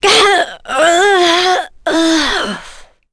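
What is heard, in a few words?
Vox Dead, English; Chrisha; kingsraid; voices heroes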